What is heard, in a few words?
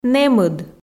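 "нэмыд", Komi, female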